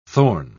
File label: "thorn", English